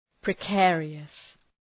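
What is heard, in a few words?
"precarious", English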